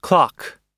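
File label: "clock", American English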